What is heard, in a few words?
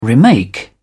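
"remake", British English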